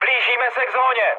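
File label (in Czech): Czech near waypoint, mood medium; speech; War Thunder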